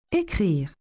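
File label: "ecrire", French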